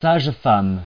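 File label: "sage-femme", French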